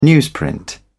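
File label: "newsprint", British English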